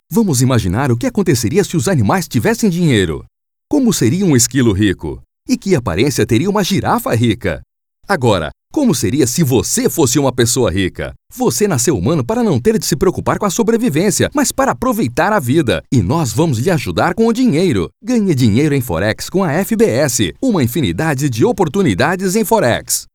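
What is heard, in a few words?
POR-BR, Portuguese, Brazil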